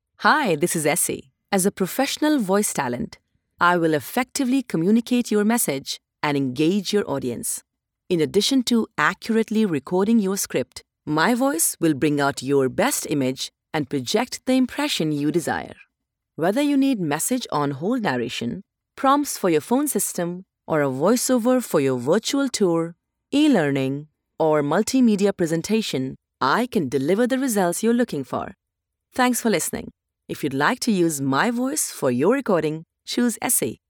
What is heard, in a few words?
IVR